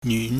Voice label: "nyun", pingyam